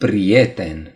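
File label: "pal", Romanian; gcompris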